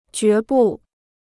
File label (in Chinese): "决不", Chinese